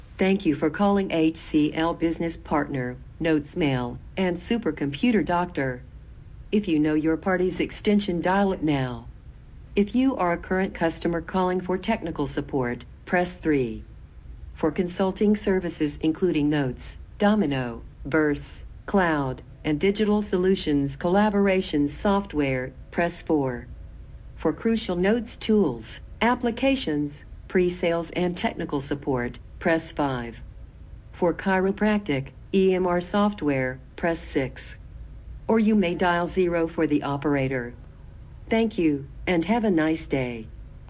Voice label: Main Menu English; NotesMail

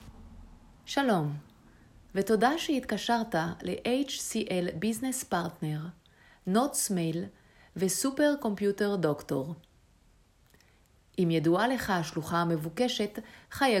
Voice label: Main Menu Hebrew; NotesMail

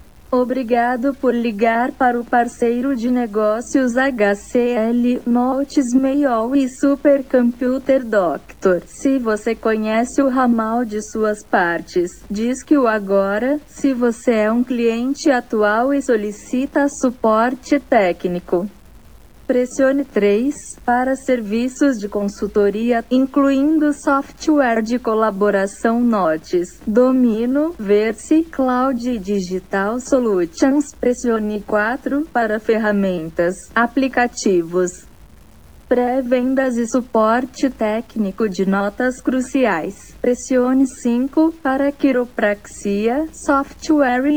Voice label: Main Menu Portuguese; NotesMail

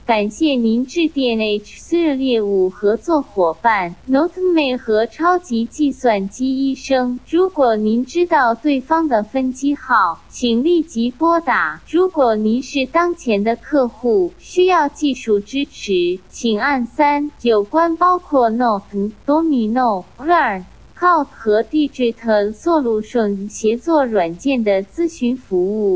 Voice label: Main Menu Chinese; NotesMail

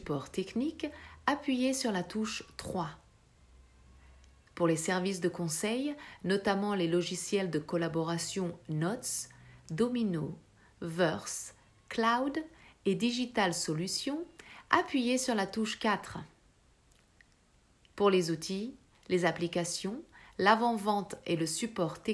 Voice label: Main Menu French; NotesMail

